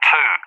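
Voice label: "2", English, UK; Digits; DCS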